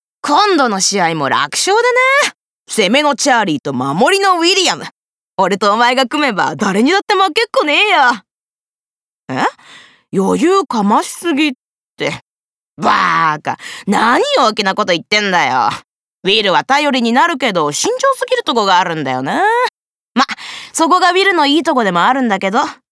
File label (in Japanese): 少年